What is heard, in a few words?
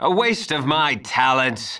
Male; baldurs1